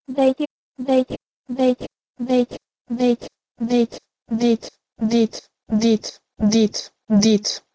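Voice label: Proto-Indo-European dh2iti to Albanian dite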